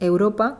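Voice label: "europa", Spanish; gleitlaute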